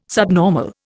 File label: "subnormal", Indian